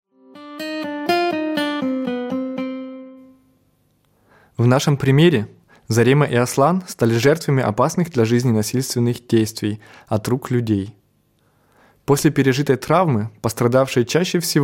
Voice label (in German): Russisch